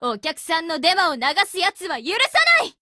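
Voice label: MEET Japanese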